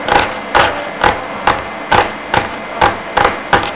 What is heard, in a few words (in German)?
nervös